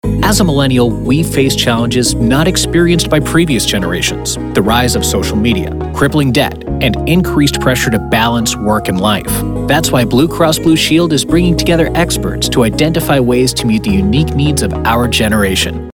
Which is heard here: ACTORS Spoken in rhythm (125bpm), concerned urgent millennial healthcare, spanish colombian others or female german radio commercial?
concerned urgent millennial healthcare